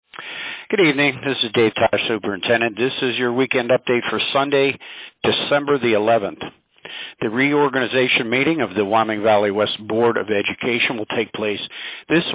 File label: Robocall